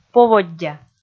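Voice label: "поводдя", Komi, female; kpv audio dict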